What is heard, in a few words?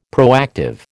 "proactive", American